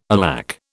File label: "alack", British